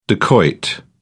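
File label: "dacoit", British English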